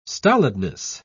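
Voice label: "stolidness", English, British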